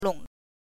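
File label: "long", Mandarin Chinese, neutral tone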